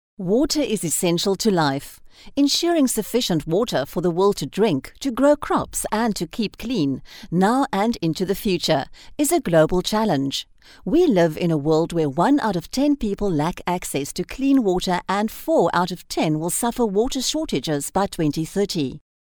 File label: Corporate Narration